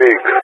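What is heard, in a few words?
"Pig", English speech; Allied Flight; CallSign; DCS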